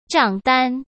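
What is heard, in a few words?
"账单", Mandarin Chinese; chinese-flashcards; hsk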